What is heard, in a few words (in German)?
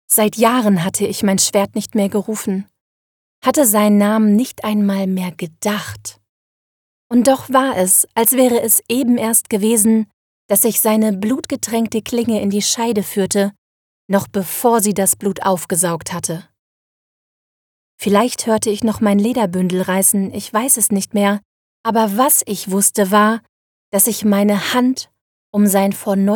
Hoerbuch Fantasy